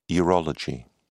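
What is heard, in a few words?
"urology", British English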